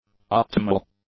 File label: "optimal", English; adjectives